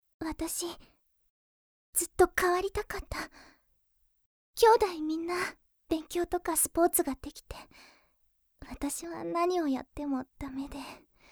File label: girl